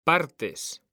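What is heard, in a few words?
"partes", Spanish, male; mekanta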